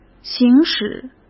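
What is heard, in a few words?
"行驶", Mandarin Chinese; hsk; chinese flashcards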